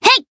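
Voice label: attack, Japanese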